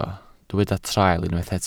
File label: "traul", Welsh